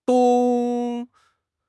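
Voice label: "dong1", Mandarin Chinese; HSK3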